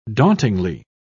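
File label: "dauntingly", English, British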